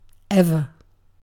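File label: "ever", British English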